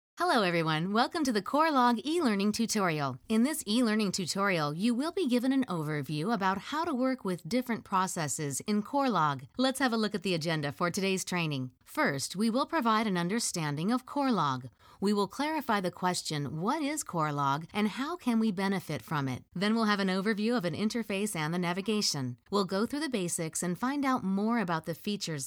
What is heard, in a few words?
ELearning